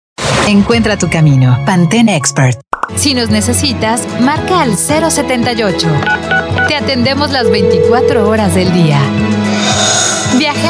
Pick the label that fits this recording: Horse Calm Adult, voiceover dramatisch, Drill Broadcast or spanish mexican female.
spanish mexican female